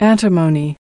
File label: "antimony", us